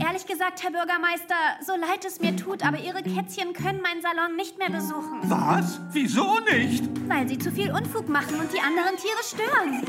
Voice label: Lip-Sync (Synchron), German, hell, fein, zart, Jung (18-30); sehr variabel; voice; Paw Patrol - Katie